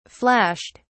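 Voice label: "flashed", British English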